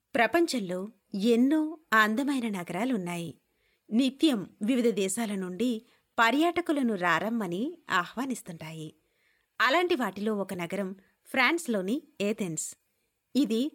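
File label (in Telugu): Telugu, Documentary; voice demos; GoLocalise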